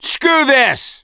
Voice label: reaction negative, voice; gambler